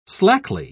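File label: "slackly", British English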